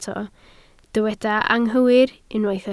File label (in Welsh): "anghywir", Welsh